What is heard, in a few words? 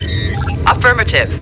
Computer Affirmative; startrek